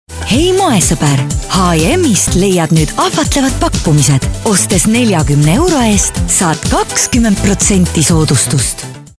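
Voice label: Estonian, female, commercial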